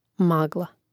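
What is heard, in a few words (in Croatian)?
"magla" Croatian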